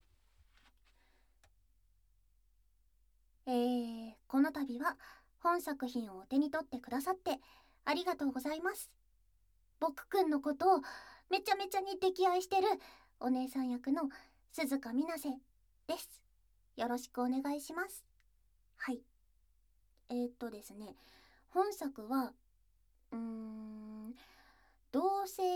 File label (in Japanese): フリートーク